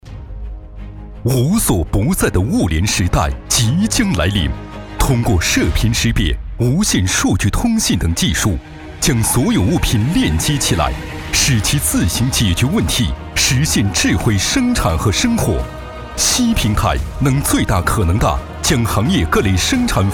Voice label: 男 专题

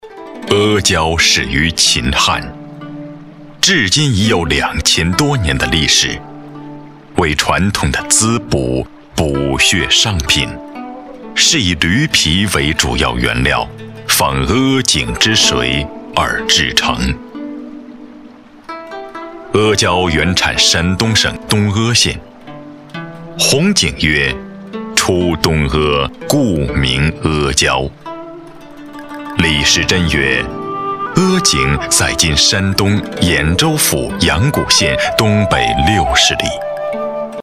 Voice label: 男 纪录片

